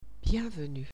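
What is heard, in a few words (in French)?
"bienvenue", French; sons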